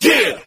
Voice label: "yeah", group of men